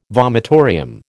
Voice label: "vomitorium", American